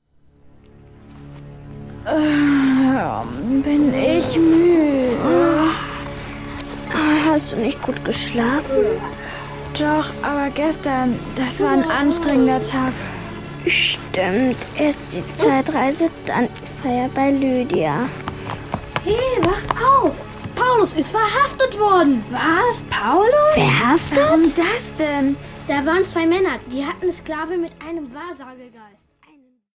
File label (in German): Hoerszene; Die Gute Nachricht